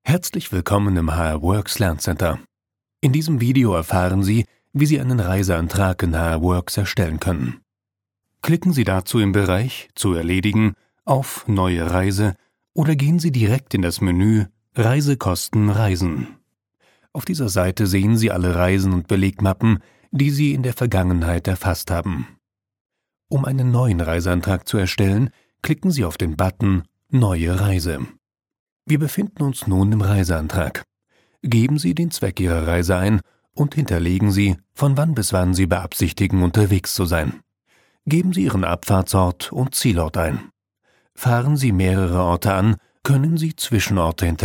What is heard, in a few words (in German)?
tutorial software